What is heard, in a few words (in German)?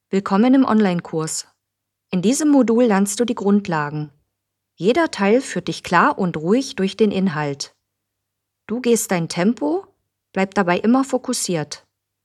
Voice ELearning Onlinekurs DE